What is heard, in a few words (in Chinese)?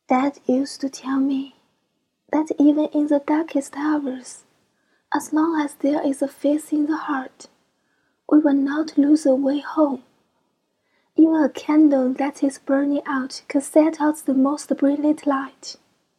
伤感英语